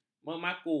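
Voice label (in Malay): "memaku", Malay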